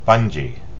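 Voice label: "bungee", US English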